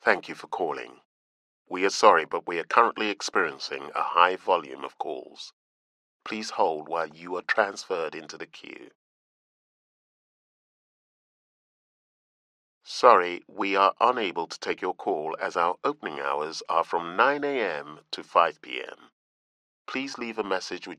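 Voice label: English African, IVR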